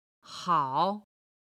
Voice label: "hao3", Chinese